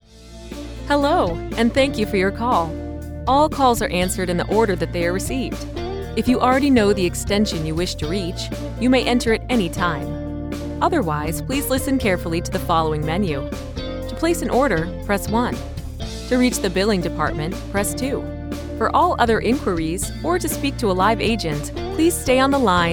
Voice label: Telephony